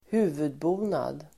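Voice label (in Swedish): "huvudbonad", Swedish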